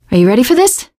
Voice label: ask ready, survivor voice teen girl